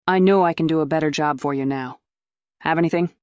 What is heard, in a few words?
fem